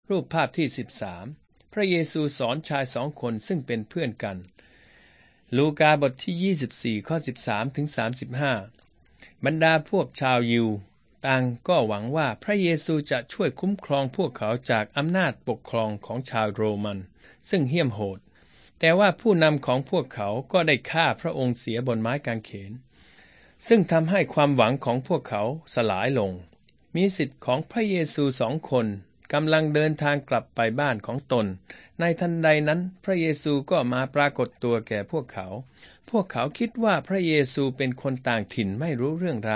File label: thai central